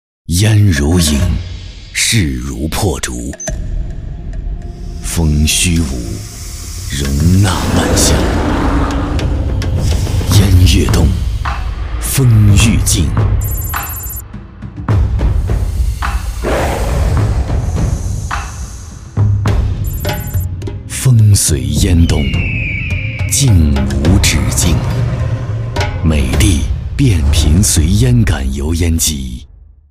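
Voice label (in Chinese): 男国大音-浑厚; 美的油烟机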